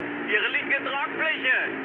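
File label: German left wing mood high, speech; War Thunder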